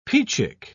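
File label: "peachick", British English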